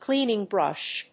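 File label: "cleaning brush", English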